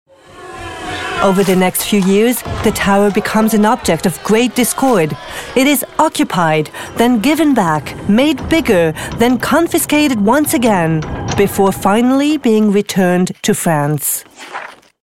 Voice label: film Documentaire US